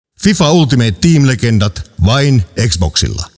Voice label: Commercial Outro